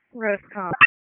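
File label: Raleigh Police Special Operations Tactical, p25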